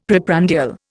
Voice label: "preprandial", Indian; Dictionary